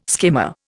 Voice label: "skimmer", Indian

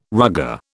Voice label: "rugger", British

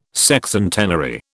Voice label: "Sexcentenary", British

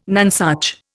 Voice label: "nonesuch", Indian

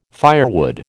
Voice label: "firewood", American

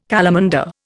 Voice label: "calamander", Indian

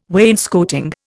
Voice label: "wainscoting", Indian

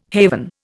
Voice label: "haven", Indian

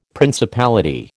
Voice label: "principality", American

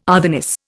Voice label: "otherness", Indian

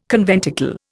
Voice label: "conventicle", Indian

